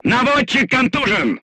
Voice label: voice message gunlayer contused, crew tanks russian